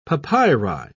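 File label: "papyri", British English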